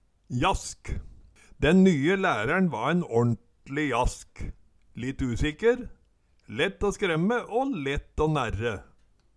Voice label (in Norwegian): "jask", Numedalsmål